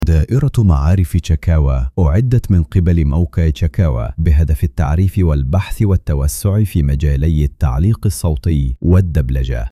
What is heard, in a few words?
male, eco narration; arabic